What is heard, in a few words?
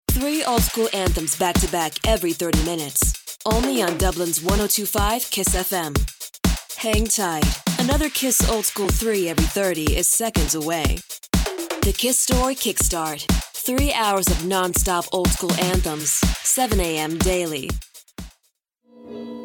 USA